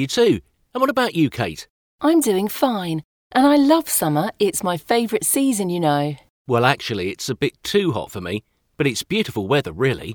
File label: Dialogue A marvellous new cafe